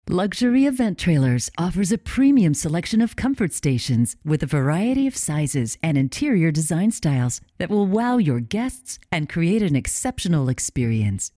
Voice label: Female VO; Event Trailers